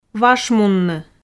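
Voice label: "вашмунны", Komi, female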